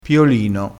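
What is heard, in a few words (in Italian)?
"piolino", dialetto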